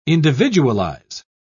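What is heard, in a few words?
"individualize", British English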